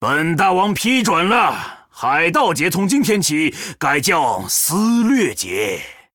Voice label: Male Troll PIRATE DAY; zh